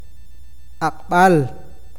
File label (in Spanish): noche, awakateko